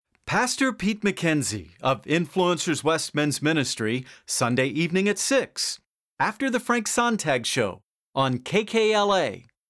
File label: Promo; Influencers; KKLA Radio (99.5 FM)